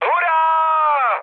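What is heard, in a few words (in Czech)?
Czech player killed enemy, mood medium; War Thunder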